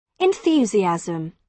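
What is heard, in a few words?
"enthusiasm", British English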